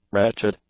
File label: "wretched", english; adjectives